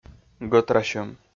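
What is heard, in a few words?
"гӧтрасьӧм", Komi, male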